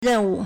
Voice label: "ren4 wu4", Chinese

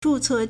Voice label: "zhu4 ce4", Chinese

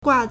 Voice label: "gua4", Chinese

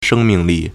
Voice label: "sheng1ming4li4", Chinese

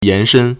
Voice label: "yan2shen1", Chinese

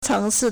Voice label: "ceng2ci4", Chinese

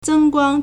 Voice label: "zeng1guang1", Chinese

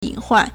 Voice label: "yin3 huan4", Chinese

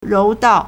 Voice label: "rou2 dao4", Chinese

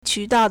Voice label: "qu2 dao4", Chinese

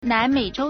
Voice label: "nan2mei3zhou1", Chinese

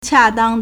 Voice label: "qia4 dang4", Chinese

